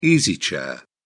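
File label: "easy chair", British English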